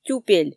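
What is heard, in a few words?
"тюпель", Komi, female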